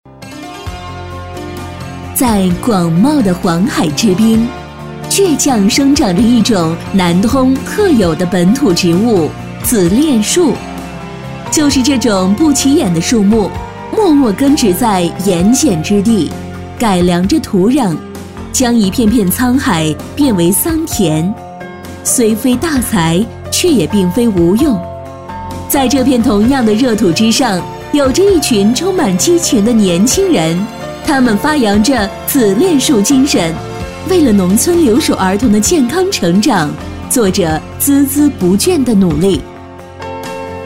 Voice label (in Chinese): 女 解说 志愿者协会; 紫栋